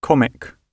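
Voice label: "comic" British English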